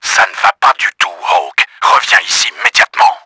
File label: ANGRY, french; KRAMER; Battle Engine Aquila; XBOX